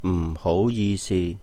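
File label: "唔好意思", Cantonese; I’m sorry; it’s embarrassing; m4-hóu-yi-si; m4 hou2 ji3 si1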